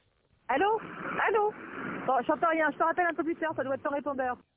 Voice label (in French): arnaque appel telephonique